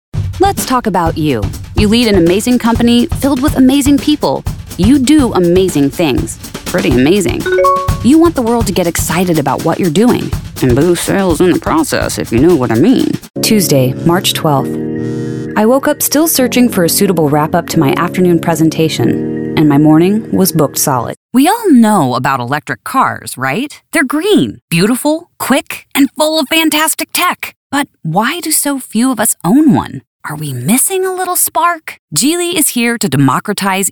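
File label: English, seslendirme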